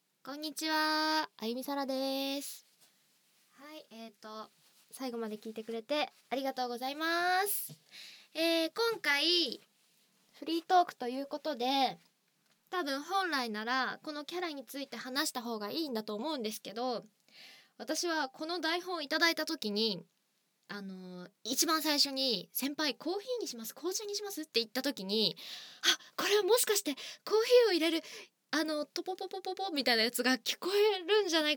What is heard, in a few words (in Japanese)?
フリートーク